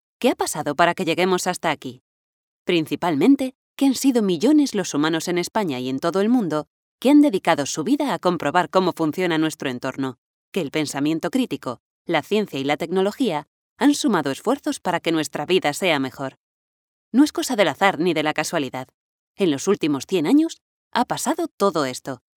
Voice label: locutora spanish voiceover

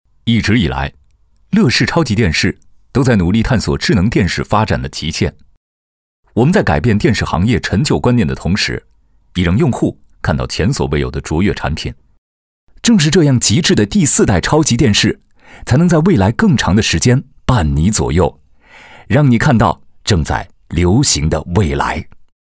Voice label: locutor chino chinese mandarin voiceover; locutor de China

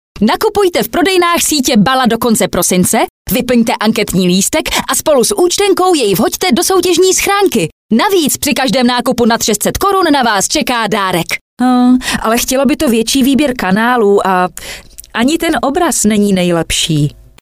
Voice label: locutora checa, czech voiceover

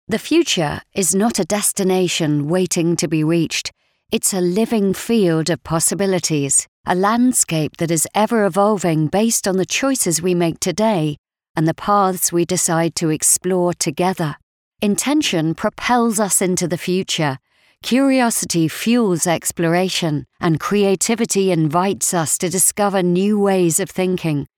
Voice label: english voiceover locutora inglesa

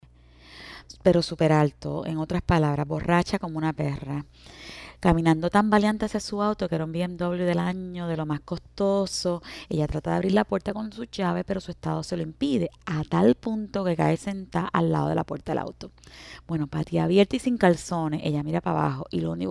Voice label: locutora puerto rico puerto rican voiceovers; Locutora de Puerto Rico

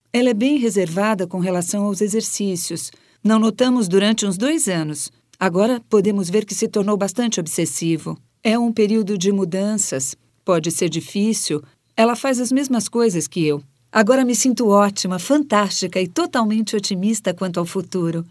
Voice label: locutora brasil brazilian voiceover

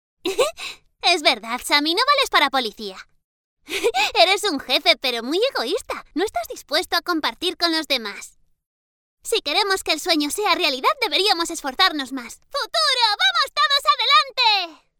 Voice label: locutora spanish child cartoon voiceover; cartoon voice acting

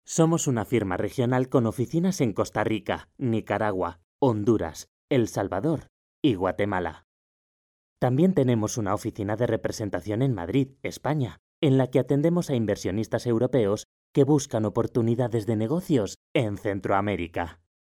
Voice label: locutor spanish voiceover

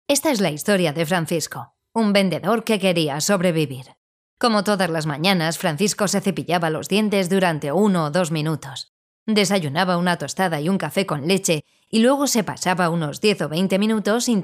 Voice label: locutora spanish voiceover, locutora profesional

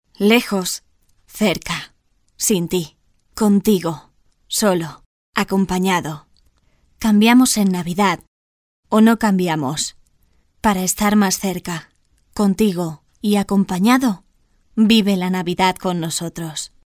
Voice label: navidad indicativo spanish female voiceover

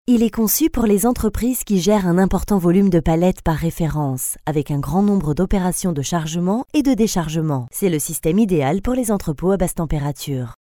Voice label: french voiceover locutora francesa; French female voice over

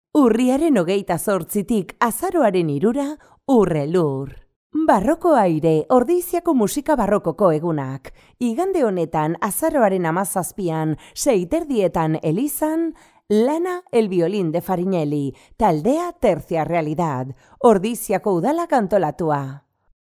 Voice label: locutora euskera basque voiceover

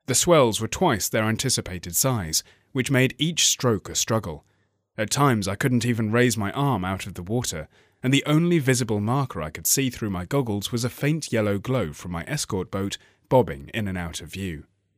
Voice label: locutor ingles english voice over; locutor británico; Locutores de Inglaterra

